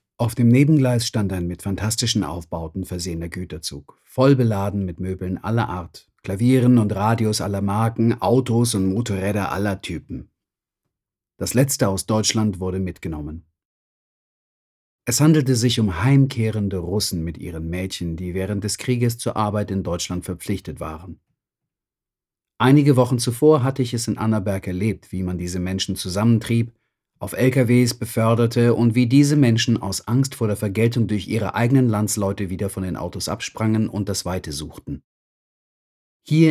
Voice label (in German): Sprachprobe Erzähler NEUTRAL